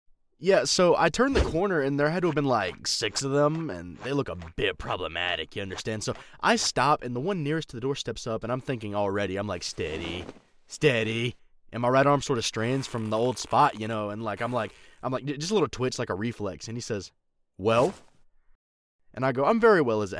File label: Character; en-GB